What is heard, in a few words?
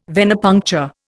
"Venepuncture", Indian